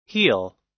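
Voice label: "heel", US English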